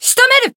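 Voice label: Voice finish, Japanese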